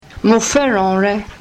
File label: yoruba